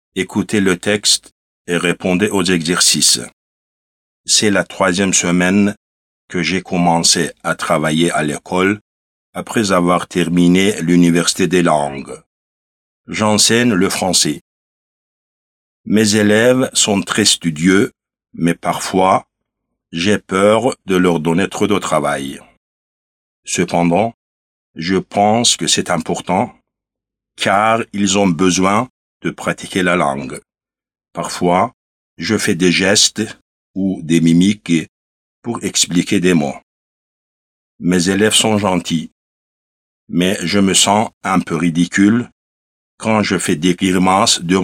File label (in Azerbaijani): Model fransız